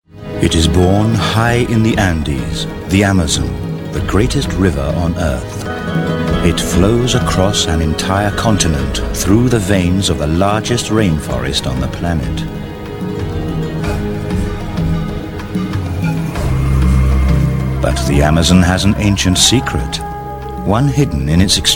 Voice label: British Voice Over Narration

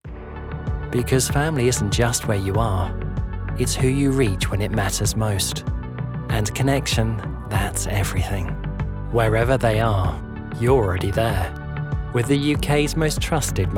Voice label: Commercial Showreel

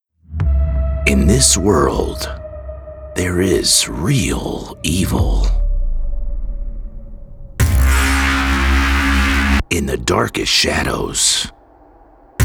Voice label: horror trailer

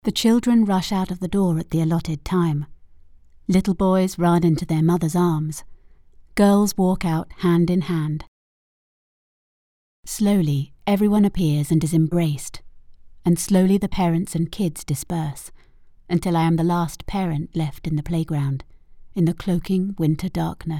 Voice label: Fiction Audiobook

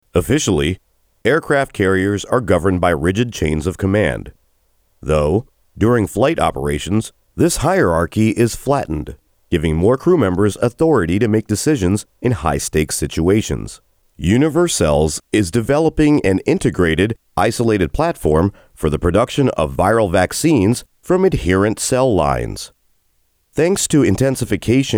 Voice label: eLearning

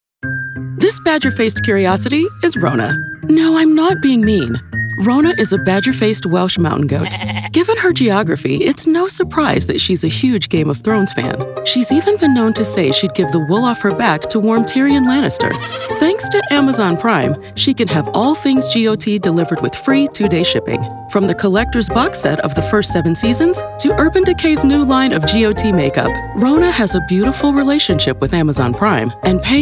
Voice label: explainer

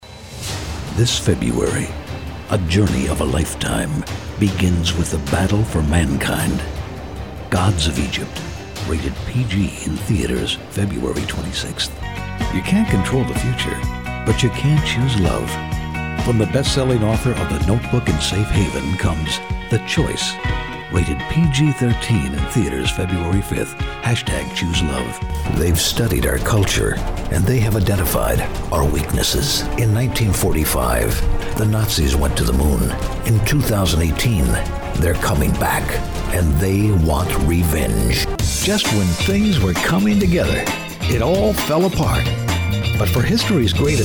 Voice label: Trailers

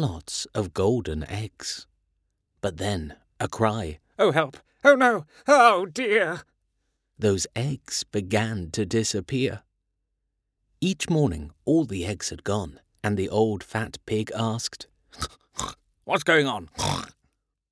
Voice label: childrens audiobook

